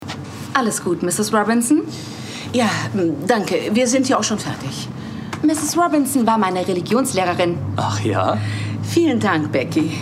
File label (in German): Synchron